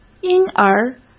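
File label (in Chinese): "因而", Mandarin Chinese; chinese flashcards; hsk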